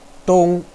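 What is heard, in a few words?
"dong1", Mandarin Chinese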